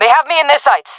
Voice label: takingfire, jungle fem; vo; Counter Strike Model Pack; CS:GO